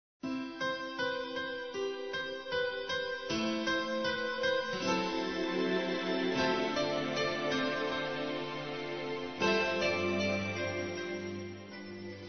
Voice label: Conquista Masculino; Telemensagens; Conquistas Cantadas paqueras; Telemensagens 2017

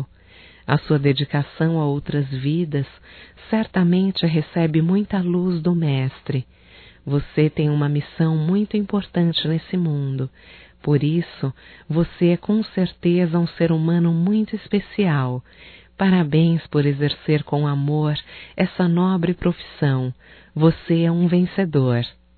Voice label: Dia Médico Feminino, Só Voz, masc; Telemensagens; DATAS COMEMORATIVAS

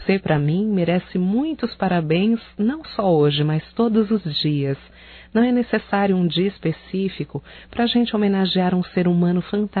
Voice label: Aniversário Feminino, Telemensagens; Aniversário Atrasado Amigo Feminino

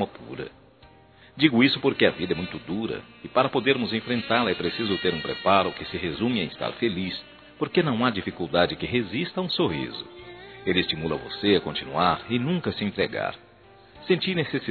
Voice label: Aniversário Neto Masculino

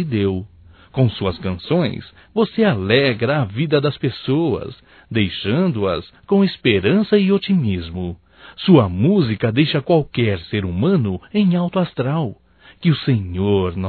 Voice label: HOMENAGEM A CANTORA, Telemensagens Só Voz masc; HOMENAGENS

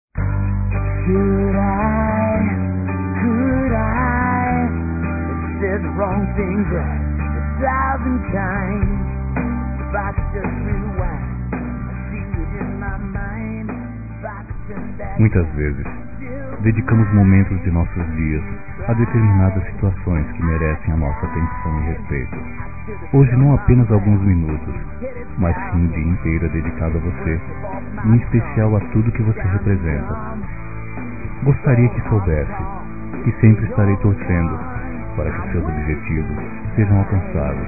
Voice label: Dia das Mães Geral Masculino; Telemensagens 2017

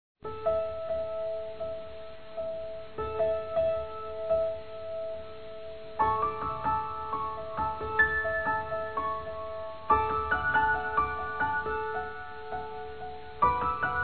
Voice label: Filho Criança Masculino

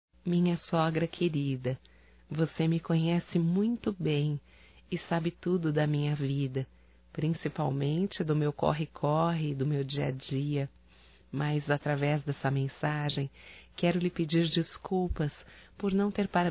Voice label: Aniversário Feminino, Telemensagens; Aniversário Atrasado Para Sogra Feminino

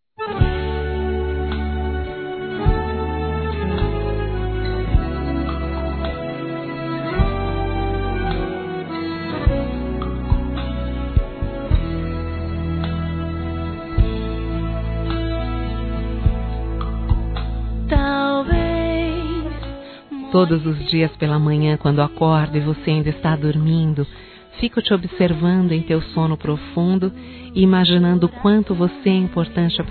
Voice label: ROM AGRADEÇO POR VOCE EXISTIR, Românticas, fem

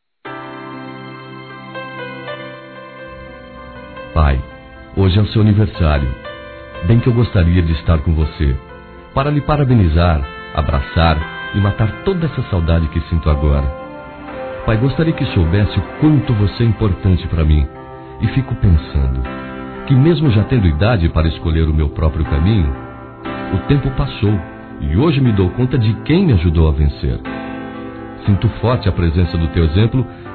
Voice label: Pai Distante Masculino; Aniversários; Aniv Familiar

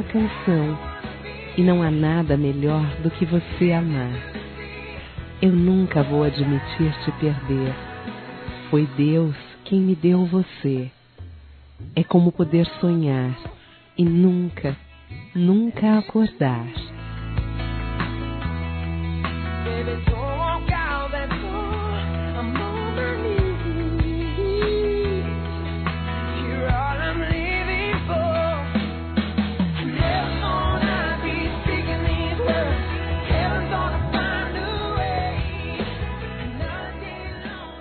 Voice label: Telemensagens Amor Fem; QUANDO O AMOR TOCA O CORAÇÃO-F

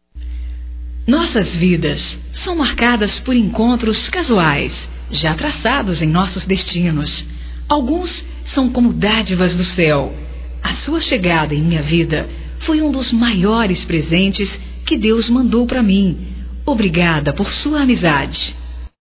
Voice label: Agradecendo Amizade Feminino, Telemensagens, Só Voz; Telemensagens 2017

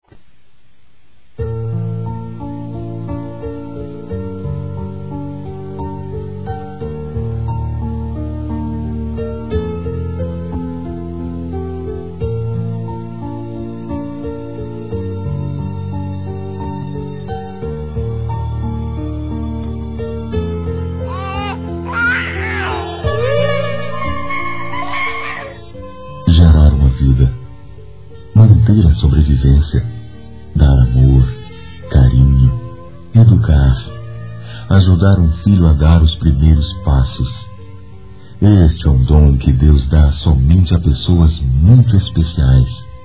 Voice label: Felicitações à Mamãe Masculino; Telemensagens; Telemensagens 2017

